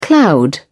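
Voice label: "cloud over", British English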